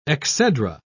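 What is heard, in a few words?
"exedra", British English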